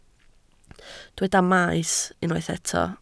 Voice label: "maes" Welsh

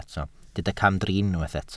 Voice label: "camdrin", Welsh

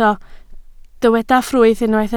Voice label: "ffrwyth", Welsh

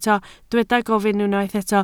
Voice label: "gofyn", Welsh

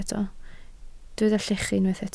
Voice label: "llechu", Welsh

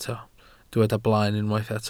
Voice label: "blaen", Welsh

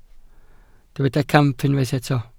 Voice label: "camp", Welsh

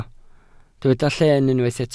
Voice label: "llen", Welsh

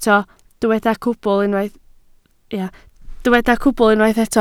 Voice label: "cwbl", Welsh

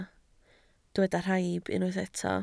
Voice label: "rhaib", Welsh

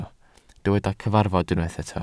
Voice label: "cyfarfod" Welsh

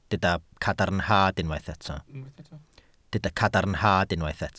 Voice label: "cadarnhad", Welsh

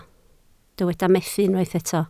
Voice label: "methu" Welsh

